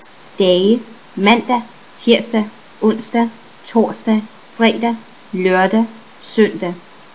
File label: danish